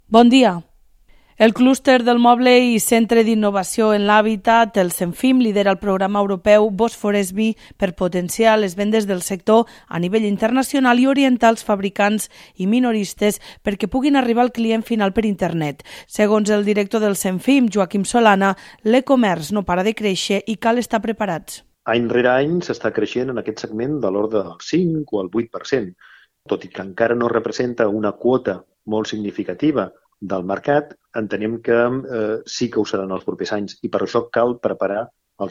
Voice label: radio tarragona informatius; ES-Catalunya